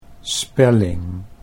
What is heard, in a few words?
"spelling", Dutch